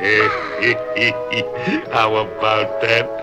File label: heh hee hee hee how about that, expletives